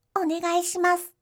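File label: "onegaishimasu", Japanese; aisatsu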